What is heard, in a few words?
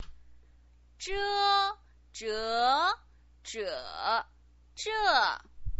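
"zhe", Mandarin Chinese pinyin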